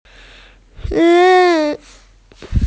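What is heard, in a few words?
"yun2", Mandarin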